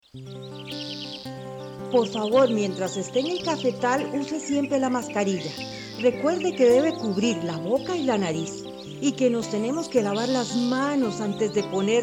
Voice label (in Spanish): Cuña actores; serie La Cosecha IICA covid